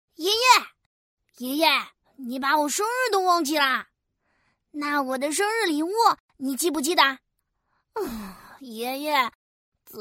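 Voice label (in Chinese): 男童 真童配音 8-12岁; 中文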